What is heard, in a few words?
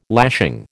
"lashing", American